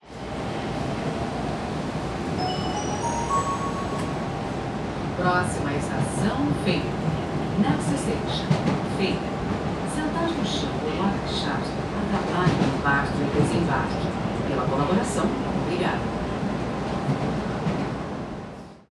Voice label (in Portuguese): Aviso de Estacao e Alertas de Seguranca do Metro de Brasilia; Colecao Sonora do Cerrado